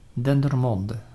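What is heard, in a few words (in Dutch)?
"Dendermonde" Dutch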